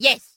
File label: "yes", cartoon